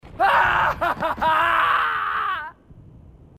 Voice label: marine, aussie; h2dialogue